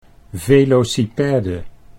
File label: "velocipede", Dutch